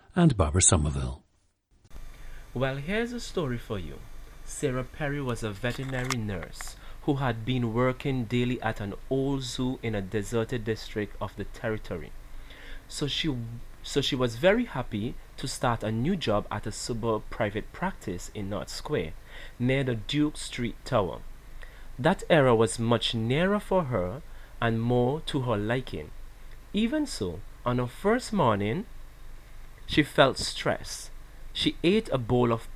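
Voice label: saint vincent and the grenadines, International Dialects of English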